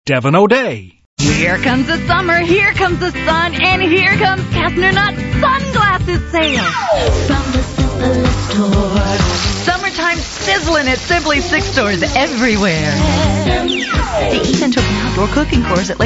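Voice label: Female Talent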